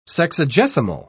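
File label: "sexagesimal", British English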